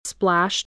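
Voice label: "splash", English word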